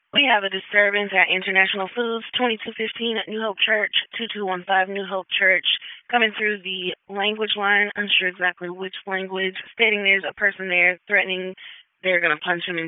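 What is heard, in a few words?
Raleigh Police Northeast District Dispatch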